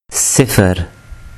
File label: "sifar", Urdu